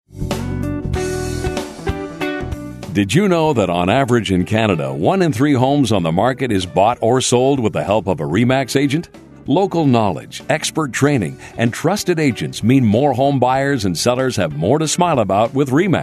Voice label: On Hold